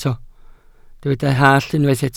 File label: "hallt", Welsh